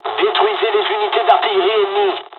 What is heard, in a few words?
ground attack, dialogs french; War Thunder